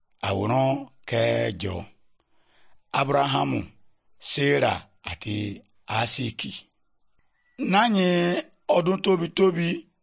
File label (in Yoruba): good news yoruba, bible